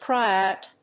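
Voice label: "cry out", English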